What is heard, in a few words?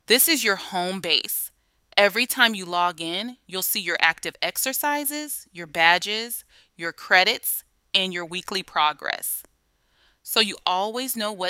tour narration